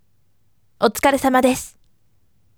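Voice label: voice, firm; otukaresamadesu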